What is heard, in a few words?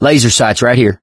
laser sights, mechanic, survivor, voice; source engine; left 4 dead 2